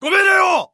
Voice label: Jp Neutral